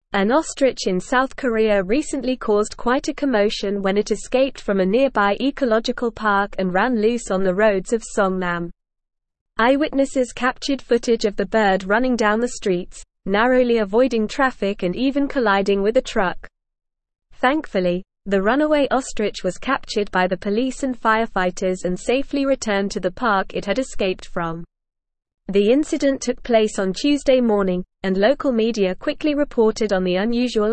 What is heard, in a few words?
English Newsroom Advanced NORMAL Reading; Escaped ostrich causes chaos on roads in South Korea